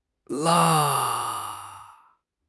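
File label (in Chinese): "啦", Chinese; HSK; HSK6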